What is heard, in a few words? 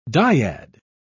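"dyad", British English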